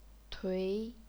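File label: "tui", chinese pinyin, second tone; 颓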